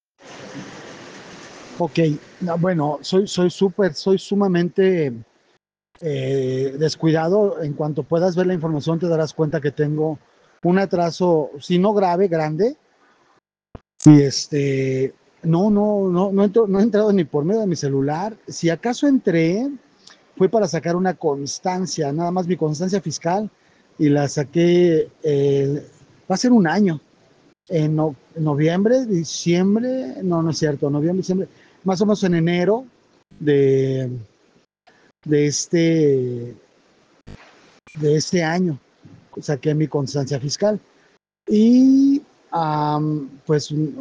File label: whatsapp ptt